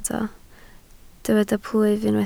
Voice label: "plwyf", Welsh